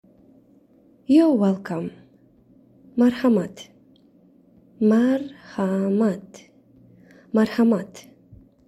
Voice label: Youre welcome Tajik